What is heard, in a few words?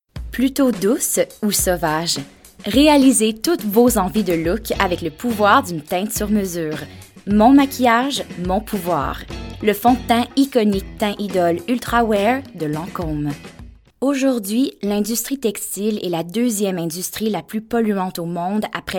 French Canadian